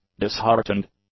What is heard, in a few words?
"disheartened", English; adjectives